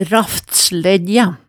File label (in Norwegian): "raftslæggja", Hemsedal